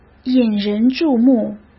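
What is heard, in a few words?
"引人注目", Mandarin Chinese; chinese-flashcards; hsk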